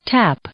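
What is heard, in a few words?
"tap", English, female; verb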